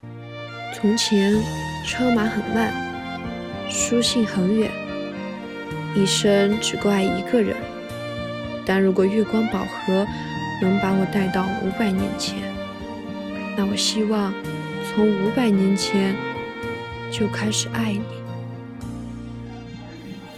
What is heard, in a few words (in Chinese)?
配音